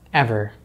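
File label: "ever", American English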